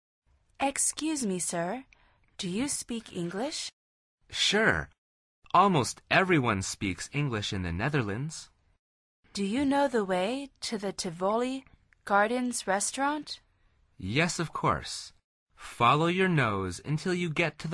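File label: dialog, Conversación en Ingles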